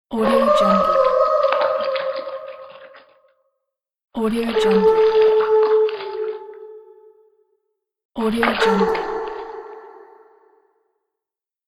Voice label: mermaid splash voice; mermaid splash voice pack